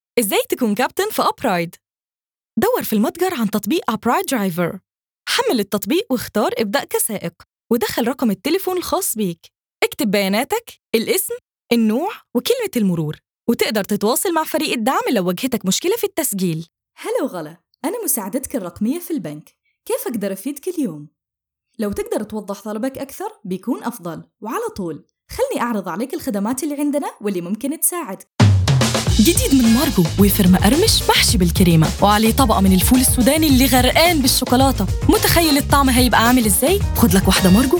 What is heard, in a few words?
egyptian